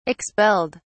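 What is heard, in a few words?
"expelled", English, British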